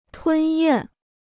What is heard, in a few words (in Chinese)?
"吞咽", Chinese